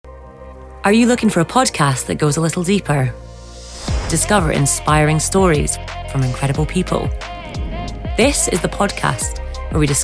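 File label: English Scottish, female, advert; accent of scotland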